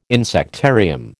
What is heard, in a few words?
"insectarium", American